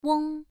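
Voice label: "weng" Mandarin Chinese, first tone, pronunciation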